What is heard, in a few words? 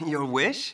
Male; baldurs1